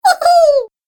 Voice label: Cartoon Game Voice "He weeee"